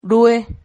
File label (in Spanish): "rue", silabas